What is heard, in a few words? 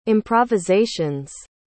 "improvisation's", pronounce